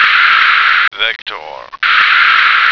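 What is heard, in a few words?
"vector", American English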